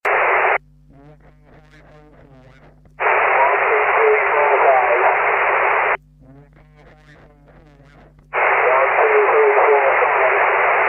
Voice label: ssb echo; 23cm